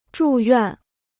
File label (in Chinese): "住院", Chinese